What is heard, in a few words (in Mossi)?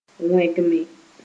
"wẽgme", Moore